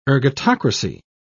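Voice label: "ergatocracy", British English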